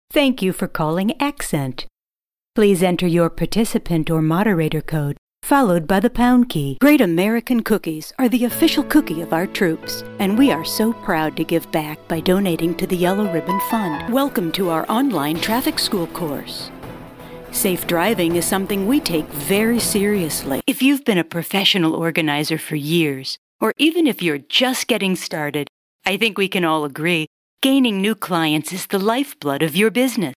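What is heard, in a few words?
English US female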